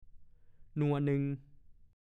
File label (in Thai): "นัวนึง", ภาษาโคราช; ถูกคอ เช่น คุยกันนัวนึงคือคุยกันถูกคอ